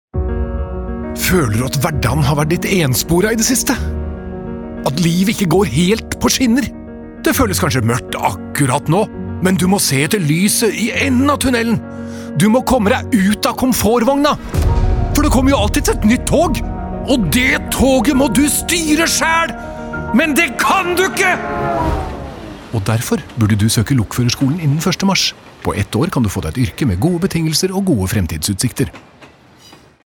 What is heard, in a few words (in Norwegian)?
Radiospot